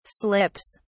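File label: "slips", British English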